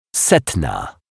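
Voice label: "setna", Polish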